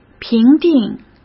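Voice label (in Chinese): "评定", Mandarin Chinese; hsk; chinese-flashcards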